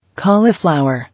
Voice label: "Cauliflower", English